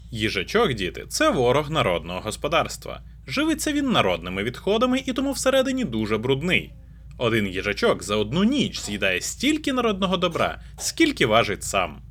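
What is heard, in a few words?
Yeti GX, microphone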